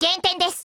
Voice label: PHRASE JP; Saiga308